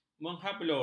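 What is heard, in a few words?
"menghablur", Malay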